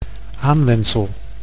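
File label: "aanwensel", Dutch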